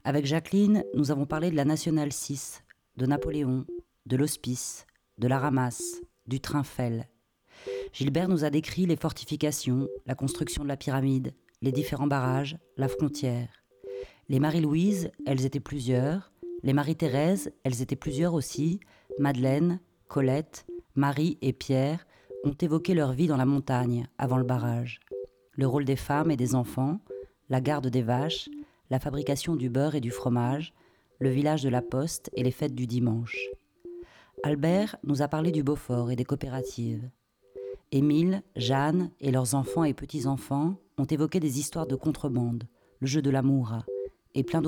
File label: Introduction audioguide; AUDIOGUIDE : LE MONT-CENIS